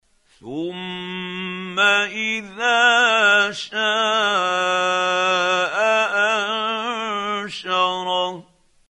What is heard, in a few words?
quran, Hussary, tafseer; Abasa